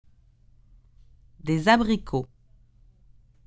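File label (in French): "des abricots", French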